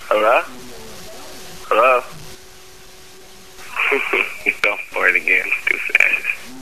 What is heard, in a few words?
phone message